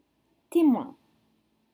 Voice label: "témoin", French